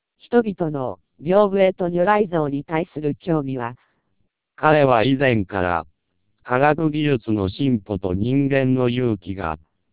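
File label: Japanese speech, TWELP 700 bps vocoder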